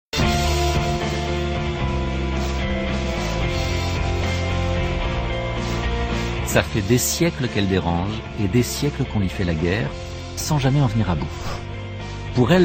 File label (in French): Narration; Putain C'Est Pas Simple